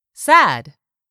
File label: "SAD", english; 3000 words